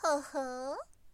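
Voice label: "hohou", Japanese, girl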